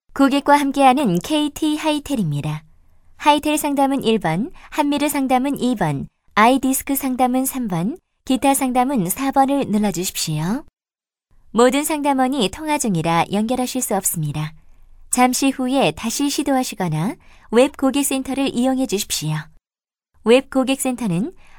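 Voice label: Korean, female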